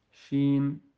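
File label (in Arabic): "ش", Arabic; quiz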